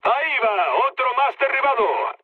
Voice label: player killed enemy, mood med, speech